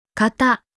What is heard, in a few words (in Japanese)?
"方かた", Japanese